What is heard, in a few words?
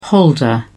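"holder", British English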